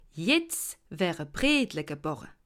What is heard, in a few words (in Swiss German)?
"bredle", alsace